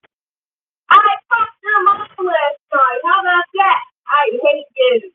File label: voice message